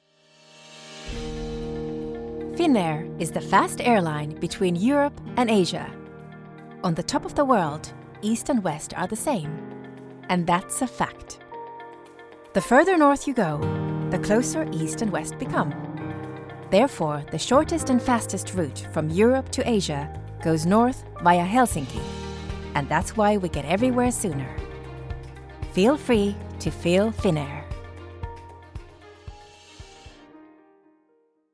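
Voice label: Finnish, Accented English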